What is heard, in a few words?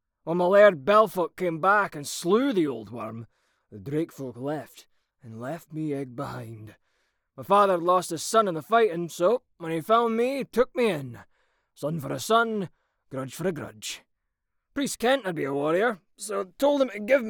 Scottish